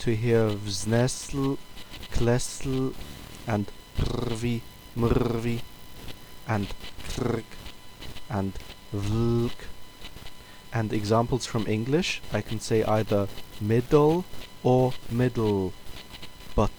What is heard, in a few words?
slovianski syllabic consonants